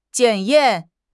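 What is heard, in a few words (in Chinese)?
"检验", Mandarin Chinese; HSK6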